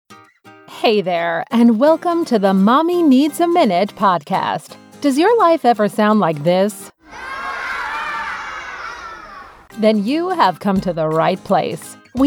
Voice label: english american podcasts

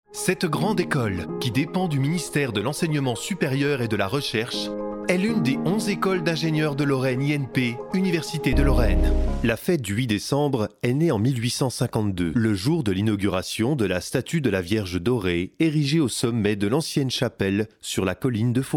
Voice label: french commercial

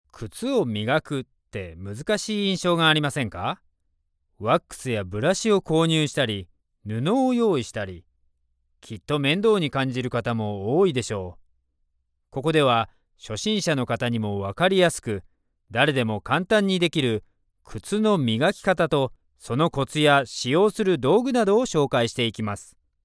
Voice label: japanese e-learning

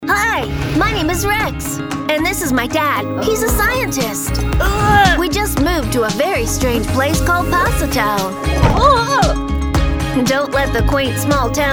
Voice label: english canadian animation

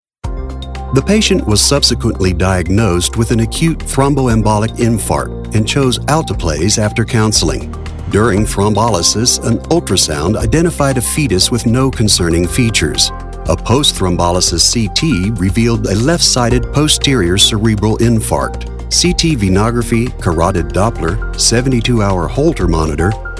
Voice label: english american medical narration

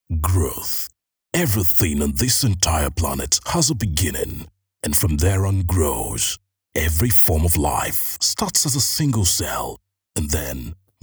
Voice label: english african documentaries

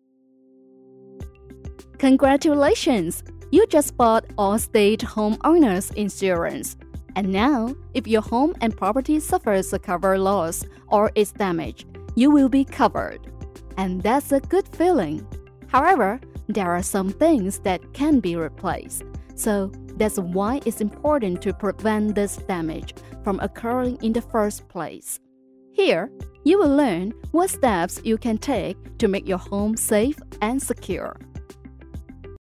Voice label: english corporate

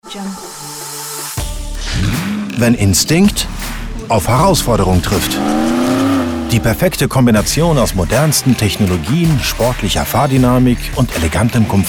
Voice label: german automotive; Deutschsprecher aus Berlin

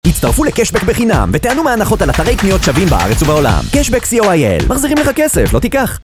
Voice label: hebrew promos

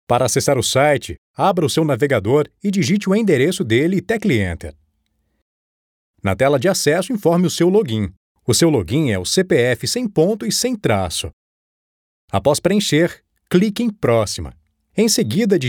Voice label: portuguese brazilian e-learning

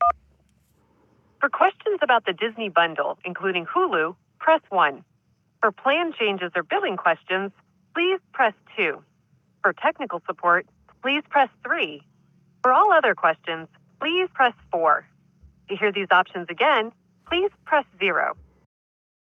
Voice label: english american ivr

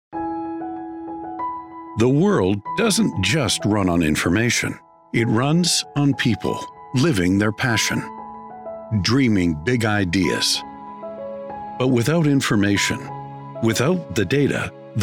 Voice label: english canadian narration

